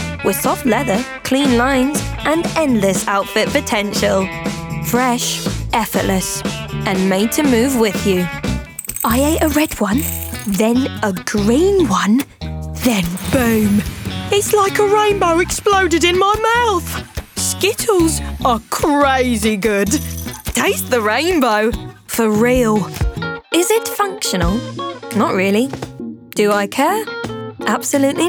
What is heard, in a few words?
RP ('Received Pronunciation'), Promo, Cool, Energetic, Confident, young